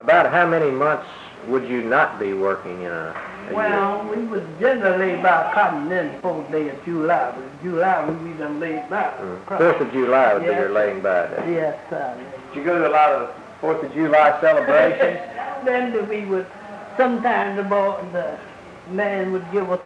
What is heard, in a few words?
Oral history with 84 year old black female Joiner Arkansas, american english dialect recordings